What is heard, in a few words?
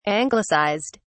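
"anglicized", British English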